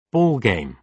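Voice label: "ball game", British English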